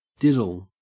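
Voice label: "diddle", Australian English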